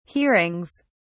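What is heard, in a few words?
"hearings", British English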